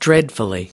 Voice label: "dreadfully", us

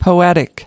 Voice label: "poetic", us

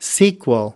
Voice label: "sequel", us

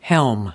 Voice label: "helm", us

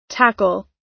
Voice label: "tackle", us

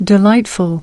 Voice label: "delightful", us

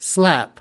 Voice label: "slap", us

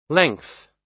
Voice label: "length", us

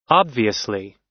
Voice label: "obviously", us

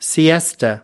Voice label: "siesta", us

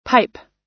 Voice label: "pipe", us

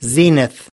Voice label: "zenith", us

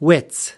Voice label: "wits", us

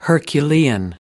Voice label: "herculean", us

